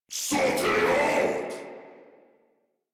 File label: Attack, en; Warframe; Corpus Amalgam Pilot